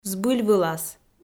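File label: "збыльвылас", Komi-Zyrian, female; audio dict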